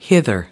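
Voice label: "hither", American English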